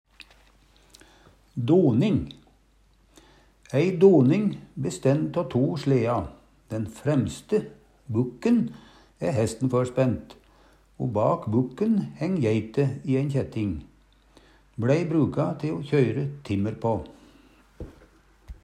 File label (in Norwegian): "doning", Numedalsmål; BLei bruka te o kjøyre timmer på.